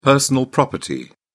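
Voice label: "personal property", British English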